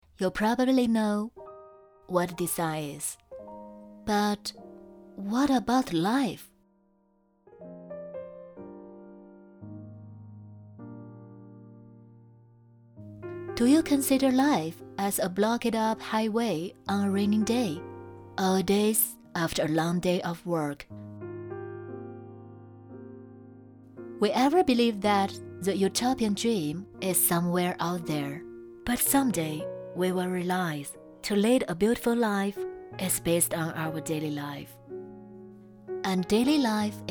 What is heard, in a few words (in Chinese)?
专题 宣传片; 红星美凯龙宣传片